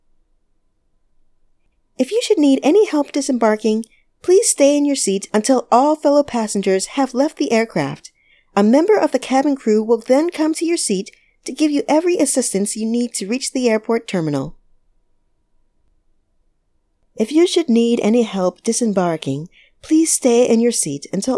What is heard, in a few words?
Airline Safety Announcement